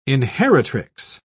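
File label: "inheritrix", British English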